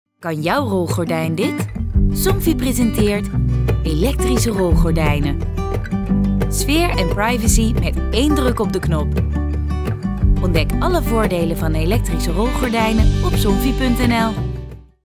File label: Commercial; Somfy